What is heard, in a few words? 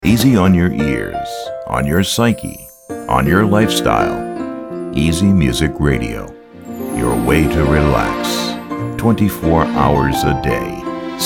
Radio Promo